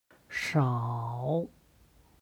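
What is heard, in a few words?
"shao", Chinese; 少; 少ない; HSK Level One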